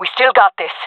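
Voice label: round losing, female voice; player vo; Counter Strike Model Pack; CS:GO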